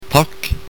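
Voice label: "thanks", Norwegian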